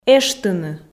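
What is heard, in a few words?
"эштыны", Komi, female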